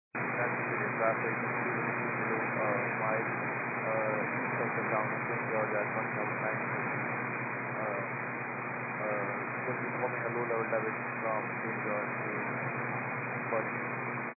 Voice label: 122 925MHz, scanner radio